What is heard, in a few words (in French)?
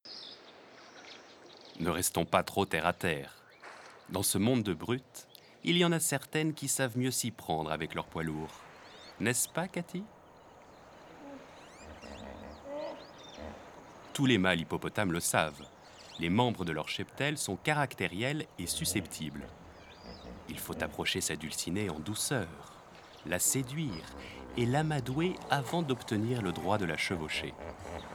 documentaire